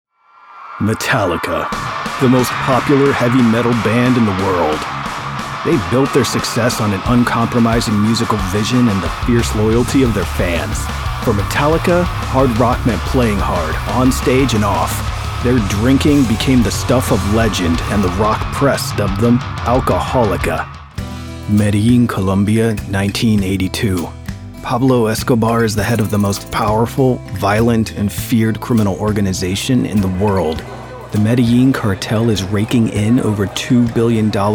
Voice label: english american documentaries